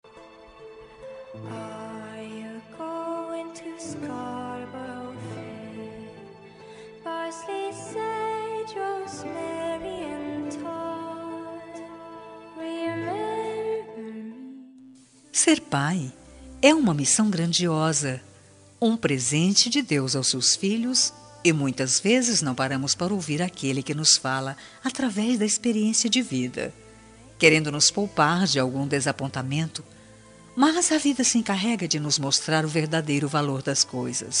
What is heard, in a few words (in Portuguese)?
sogro fem, Dia dos Pais Sogro – Voz Feminina